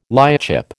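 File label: "lightship", American English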